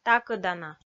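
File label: "такӧдана", Komi, female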